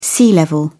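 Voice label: "sea level", English, British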